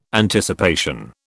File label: "Anticipation", British